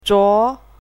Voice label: "zhuo2", pinyin